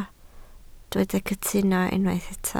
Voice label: "cytuno", Welsh